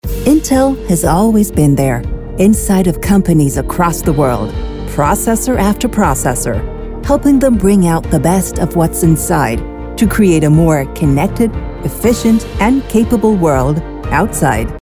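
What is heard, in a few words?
spot, english commercial voice over; Intel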